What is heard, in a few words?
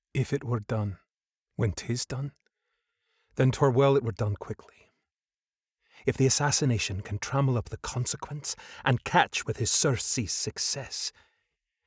SCOTTISH